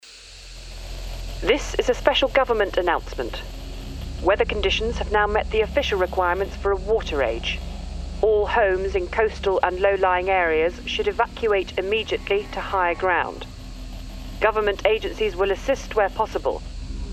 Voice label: Real Newsreader Gaming

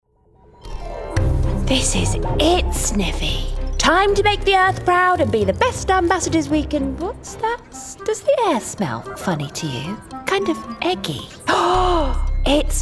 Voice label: young adventurer animation

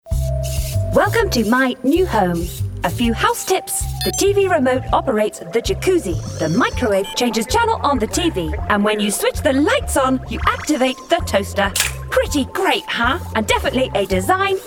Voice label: Rookie Robot Animation

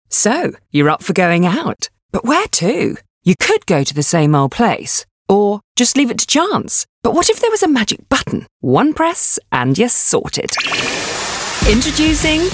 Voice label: sassy fun; Go Out London

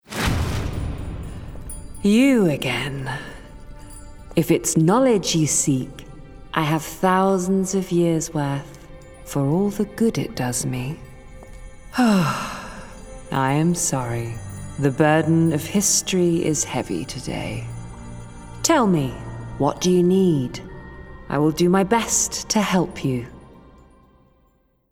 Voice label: Disillusioned Priestess Gaming

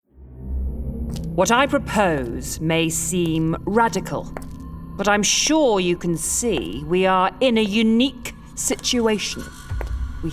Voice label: Evil CEO Gaming

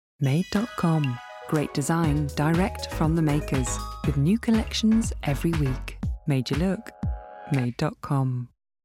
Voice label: Natural Smooth

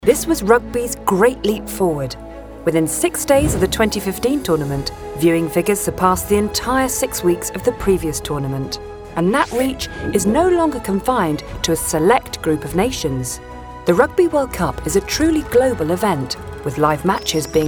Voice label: natural engaging; Promote Global Unity; EY; Rugby World Cup

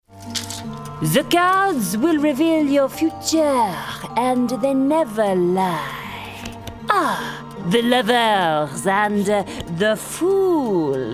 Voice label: Wicked Fortune Teller Animation

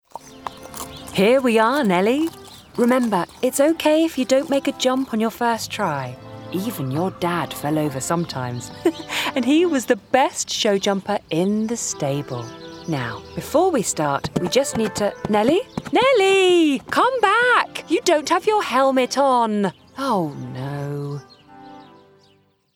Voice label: Caring Mother Horse Animation

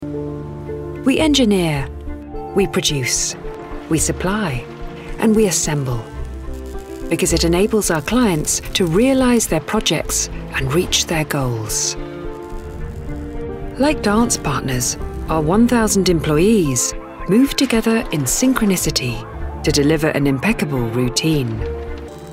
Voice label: Inspirational Smooth; Smulders

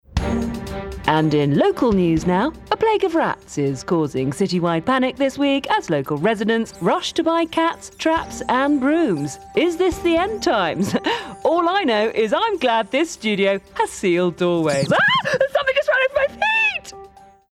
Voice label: Comical Newsreader Animation

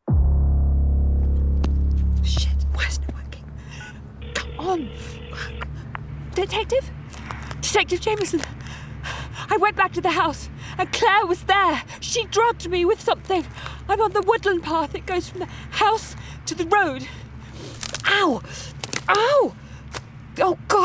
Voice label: Scared Victim Radio Drama Thriller

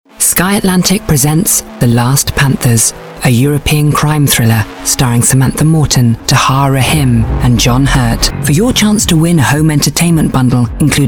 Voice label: Deep Powerful; Sky Atlantic

